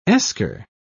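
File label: "eskar", British English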